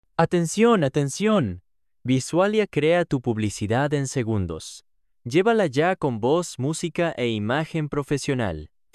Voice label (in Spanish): perifoneo, audios publicitarios